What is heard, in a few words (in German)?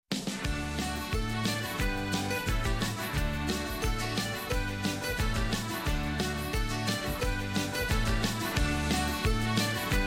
Mundart; Prüferin beim Rechnungshof